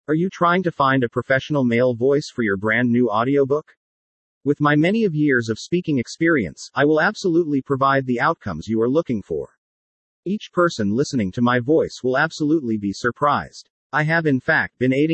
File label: man, audiobooks